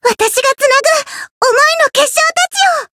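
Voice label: Voice variable chant, Japanese; yukino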